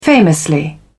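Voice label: "famously", British English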